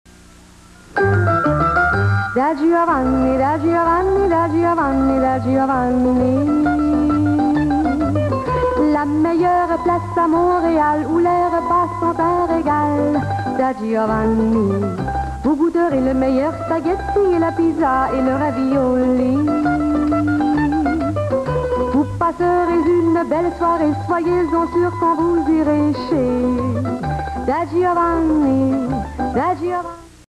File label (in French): Publicite; Quebec; Da Giovanni